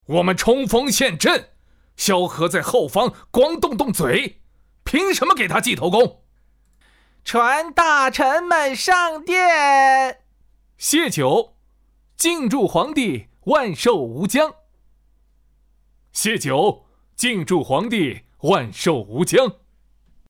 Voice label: 角色不同角色对话

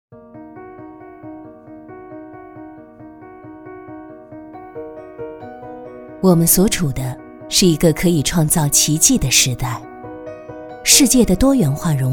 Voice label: 科技感自然旁白

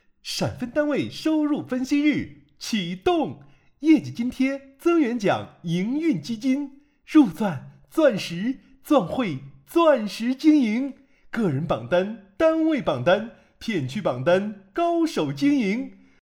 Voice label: 男生活力

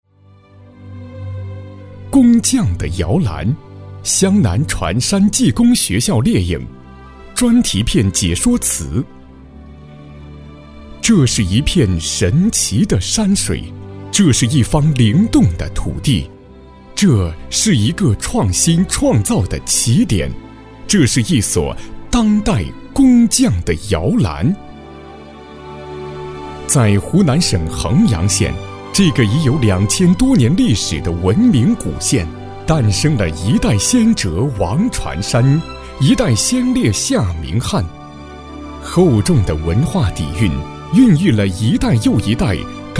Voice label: 男 学校专题 学校 大气抒情

